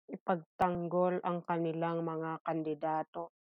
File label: Tagalog; defend their candidates - ipagtanggol ang kanilang mga kandidato